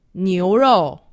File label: "niurou", Chinese; Survival Chinese